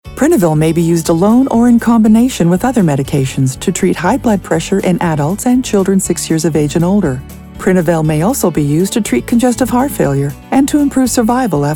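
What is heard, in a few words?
Medical Voiceover, female voice; Prinivil spot; COMMERCIAL; relaxed; authentic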